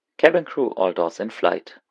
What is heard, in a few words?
Arm Doors, Announcements; MSFS Simbrief; DLH